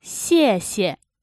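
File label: "xiexie", Chinese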